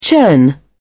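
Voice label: "churn", British English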